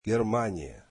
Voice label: russian Germany